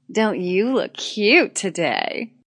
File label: Wmn Says Dnt U Lk Cute, Woman Says Don't You Look Cute; Talking